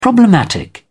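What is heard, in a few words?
"problematic", British English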